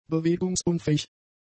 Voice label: "bewegungsunfähig", German; adjectives

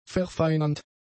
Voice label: "verfeinernd", German; adjectives

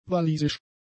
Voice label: "walisisch", German; adjectives

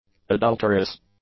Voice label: "adulteress", English

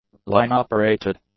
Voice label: "line-operated", english; adjectives

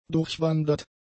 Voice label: "durchwandert", german; adjectives

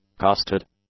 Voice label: "casted", English; adjectives

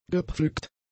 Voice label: "gepflückt", German; adjectives

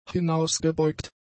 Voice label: "hinausgebeugt", German; adjectives

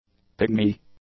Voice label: "pygmy", English; nouns

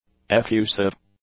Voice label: "effusive", English; adjectives

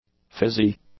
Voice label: "fizzy", english; adjectives

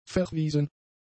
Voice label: "verwiesen", German; adjectives

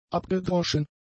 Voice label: "abgedroschen", German; adjectives

